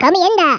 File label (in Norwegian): "come on then", Norwegian; Speech; 11khz